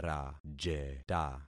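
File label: "rajeta", concatenated syllables; Affixing experiment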